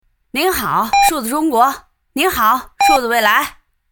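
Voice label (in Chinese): 北京 女 北京腔 样音 女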